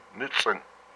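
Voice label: "your leg", Hupa, male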